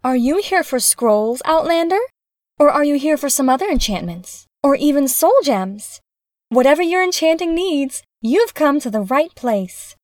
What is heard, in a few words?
bosmer, wood elf voice auditions